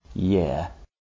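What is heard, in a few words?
year, English RP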